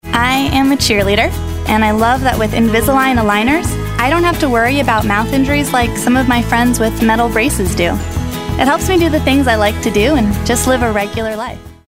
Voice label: girl next door

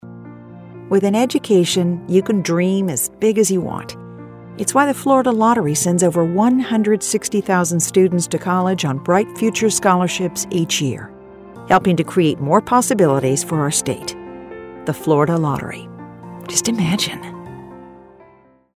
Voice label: female lottery

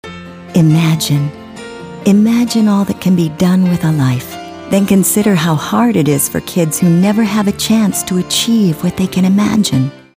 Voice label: warm

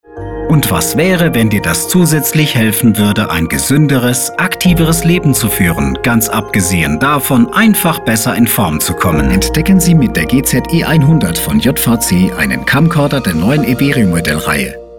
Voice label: Documentary

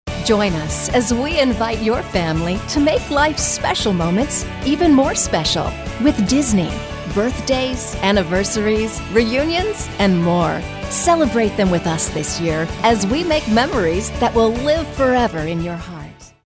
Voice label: friendly

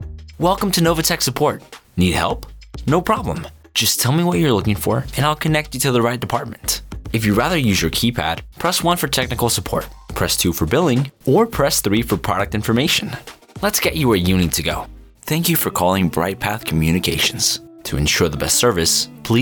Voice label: IVR English

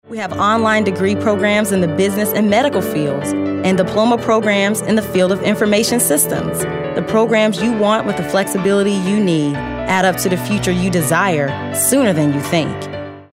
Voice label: youthful

